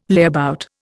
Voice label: "layabout", Indian